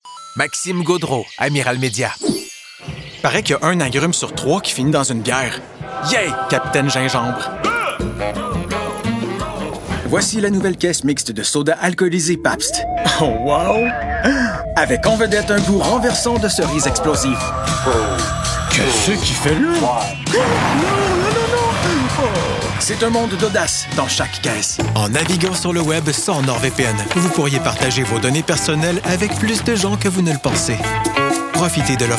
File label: Voice Demo Advertising FR CA